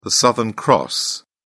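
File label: "southern cross", English, British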